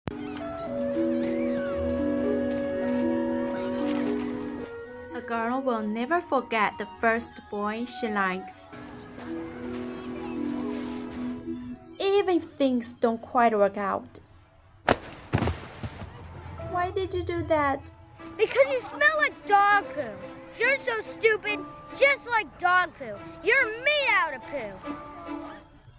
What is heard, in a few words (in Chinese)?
少女感电影独白